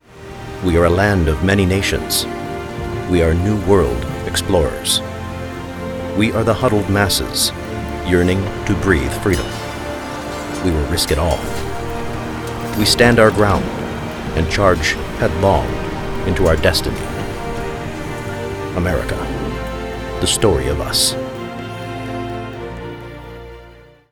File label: America Documentary